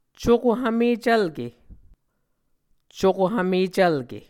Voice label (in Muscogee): "cuko hvmecvlke", Muscogee; Mvskoke Words with Voicing Consonants; Martin, Jack B., and Margaret McKane Mauldin. 2023. A Dictionary of Creek/Muskogee; SIL International